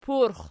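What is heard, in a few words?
"purx" nominative, Archi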